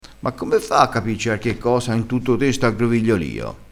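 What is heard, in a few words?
"aggrovigliolio", Italian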